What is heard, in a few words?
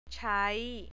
"chai", thai